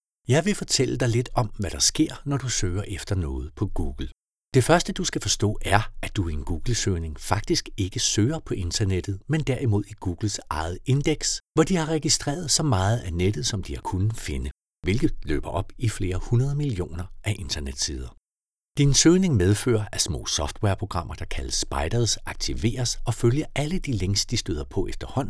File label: eLearning Narration Voice 40-49